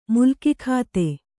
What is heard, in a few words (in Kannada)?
"ಮುಲ್ಕಿಖಾತೆ", Kannada